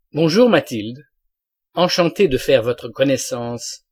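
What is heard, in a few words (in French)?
bonjour mathilde enchanté de faire votre connaissance, male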